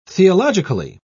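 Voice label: "theologically", British English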